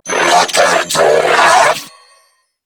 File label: Cover Me Grineer Female; en; New War; Narmer Grineer